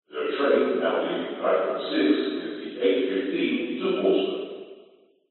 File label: public places train station england announcement; the train leaving plt 6 is 8 15 portsmouth